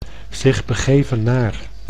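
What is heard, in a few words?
"zich begeven naar", Dutch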